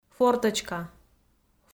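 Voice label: "форточка", Komi, female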